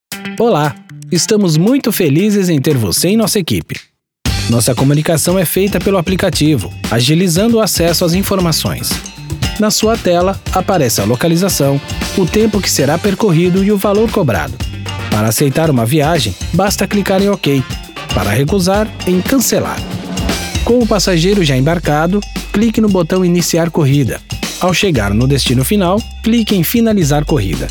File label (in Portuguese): elearning